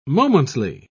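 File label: "momently", British English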